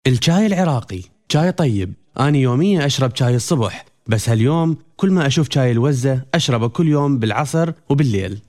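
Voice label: Arabic narration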